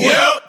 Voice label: yup group of men; Voice

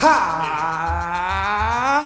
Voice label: "hiiiiii", voice

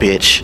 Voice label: "bitch", expletives

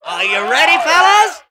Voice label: are you ready fellows, announcer; Voice; introductions

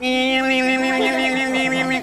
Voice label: yeweeyewee, gibbrish; Voice

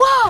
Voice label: "woah" boy; ooh ahh

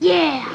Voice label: "yeah" boy, voice

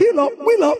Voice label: "heal up wheel up", voice; ooh ahh

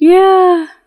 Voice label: "yeah" drag, voice; ooh ahh

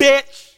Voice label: "bitch", expletives

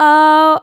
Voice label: "oh" girl; ooh ahh

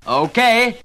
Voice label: okay british bloke

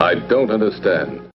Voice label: i don't understand, announcer; Voice

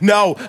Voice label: "no", male; expletives

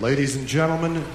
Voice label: ladies and gentlemen announcer

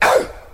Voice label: ow male; ooh ahh; ow ouch

